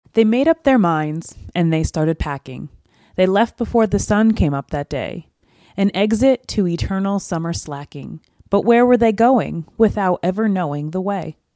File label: E865 Tascam, microphones